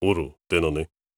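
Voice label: question short, voice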